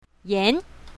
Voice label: "yan2", Mandarin; colors